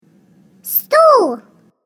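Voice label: "chair", Russian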